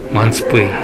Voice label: "matupoe", minamiyamagata